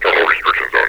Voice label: terminal restriction zone, metropolice vo; Half Life Alyx